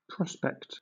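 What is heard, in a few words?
"prospect", English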